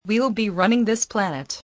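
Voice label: "running", English word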